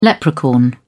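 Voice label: "leprechaun", British English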